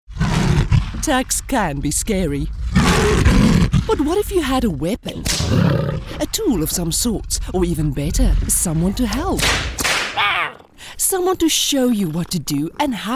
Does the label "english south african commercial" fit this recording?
Yes